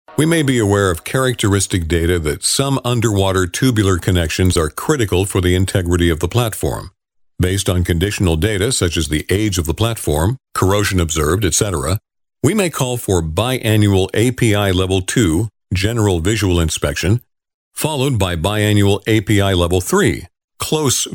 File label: Knowledgeable